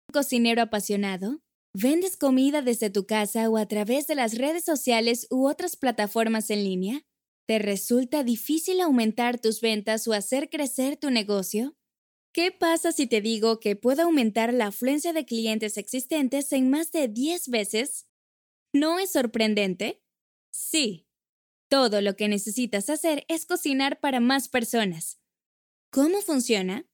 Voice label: Spanish (LA), Female

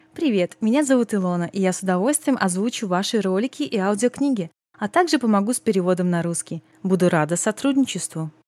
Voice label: Female, Russian

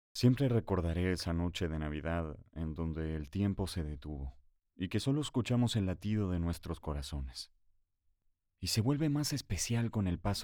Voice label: Spanish (LA) Male

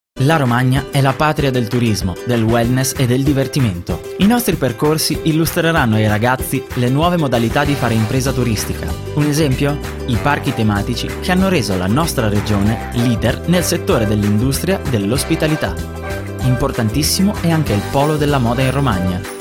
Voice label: Male, Italian

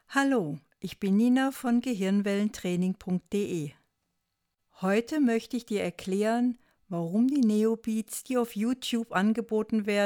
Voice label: German, Female

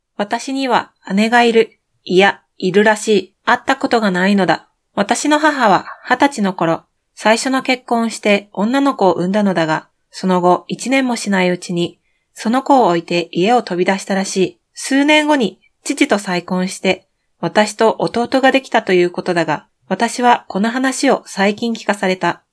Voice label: Japanese, Female

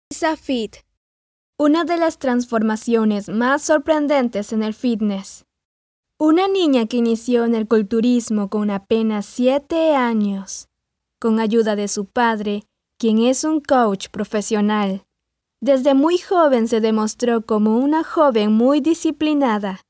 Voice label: Spanish (LA), Female

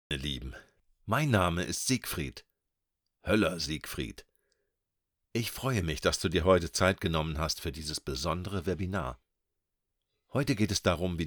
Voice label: German Male

